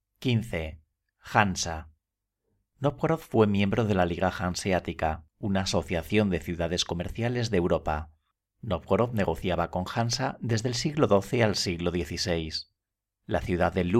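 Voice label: Spanish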